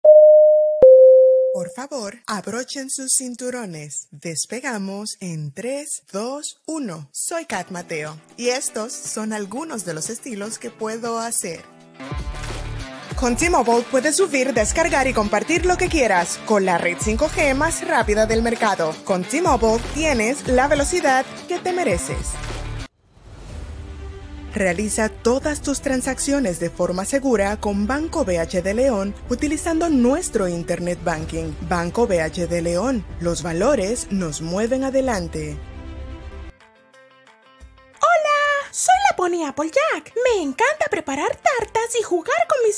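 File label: Dominican Spanish; generico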